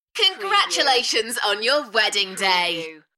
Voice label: UK female Congratulations on your wedding day Enthusiastic; beanstalk audio